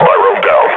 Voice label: announce kill antlion, combine grunt; Half Life Model Pack

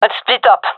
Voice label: request spread out, gendermerie fem; vo

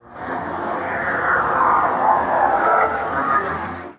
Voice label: strange talk; Half Life Model Pack; Half Life 2; ambient levels citadel

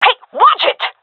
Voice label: taking fire friendly, seal fem; vo; Counter Strike Model Pack; CS:GO

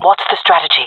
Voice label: request plan, jungle fem; player vo jungle fem; Counter Strike Model Pack; CS:GO

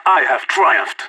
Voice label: last man standing, vo agents; leet

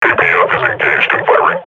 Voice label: firing, combine grunt; Half Life Model Pack

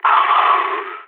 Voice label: death, balkan; vo

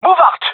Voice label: request move, male; vo

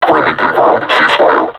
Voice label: taunt, vo combine suppressor; Half Life Alyx

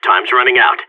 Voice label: radio bot time, seal; Counter Strike; CS:GO

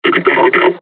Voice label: taunt, combine charger; Half Life Alyx

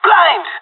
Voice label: blinded, pirate; Counter Strike Model Pack; CS:GO

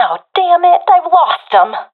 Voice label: lost sight enemy, professional fem; vo; Counter Strike Model Pack; CS:GO

